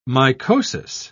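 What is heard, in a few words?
"mycosis", British English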